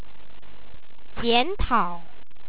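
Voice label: "jia3nta3o", Chinese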